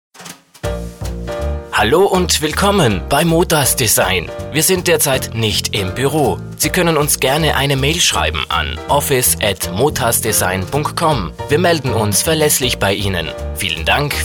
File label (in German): Nachtansage; Motas Design; telefonansagen sonstige